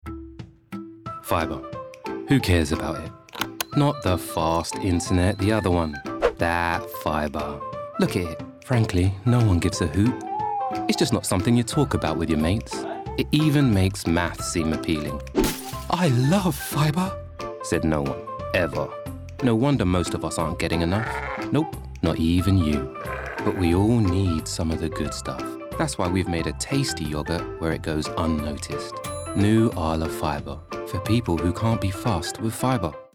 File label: Commercial; Arla Fibre